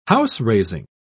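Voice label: "house-raising", British English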